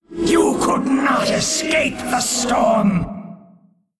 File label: Male Elder God Knockout